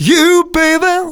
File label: Phrase Male; Vengeance Samples